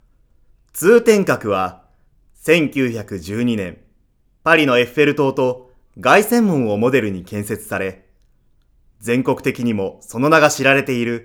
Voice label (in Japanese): ナレ